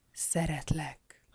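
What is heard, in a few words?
iloveyou, female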